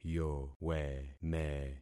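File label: "yoweme", concatenated syllables; Affixing experiment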